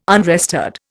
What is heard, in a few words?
"unrestored", Indian